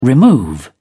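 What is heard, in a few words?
"remove", English, British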